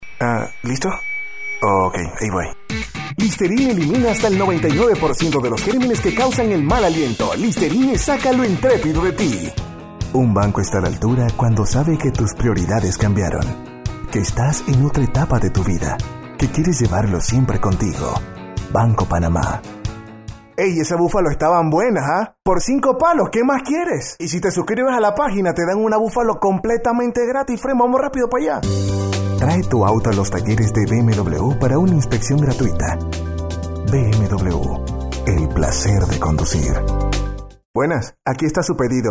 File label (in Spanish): Locutor Comercial